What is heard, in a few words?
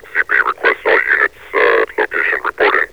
cp requests all units report in, metropolice vo; Half Life Alyx